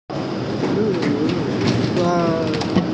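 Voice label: "yu3xie2", Mandarin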